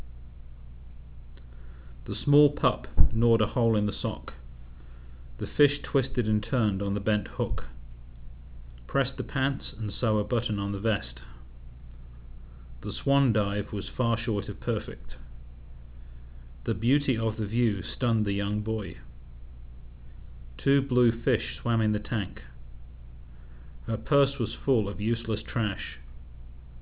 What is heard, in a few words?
british, open speech; uk